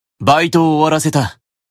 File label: Job Notification Voice; Satan